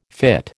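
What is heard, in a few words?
"fytte", American English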